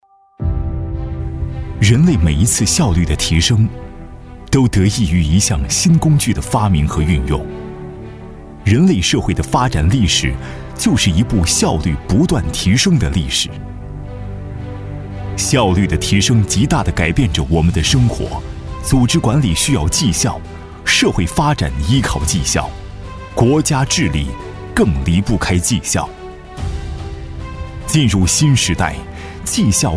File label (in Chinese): 男国 专题 企业 大气; 使命与担当